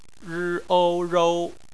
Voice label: "rou", Chinese phonetics